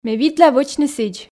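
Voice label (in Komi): ме видла вӧчны сідз, Komi, female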